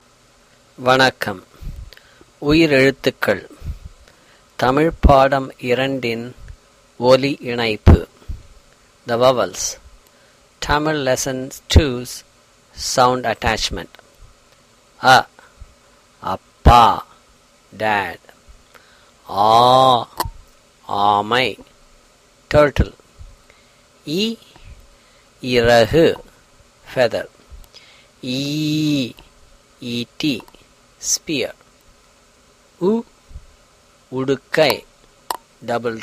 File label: Tamil Vowel Sounds